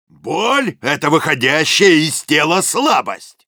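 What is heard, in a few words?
Soldier jeers, russian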